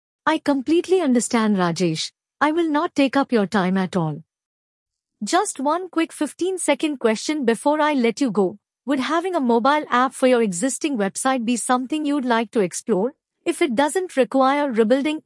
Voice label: Full Call I'm Busy Soft Pivot